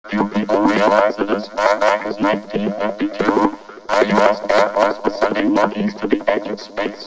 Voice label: Monkeys To Edge, Spoken, Industrial